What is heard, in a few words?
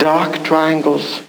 LoFi Phrases, LoFi Vocals; Vengeance Samples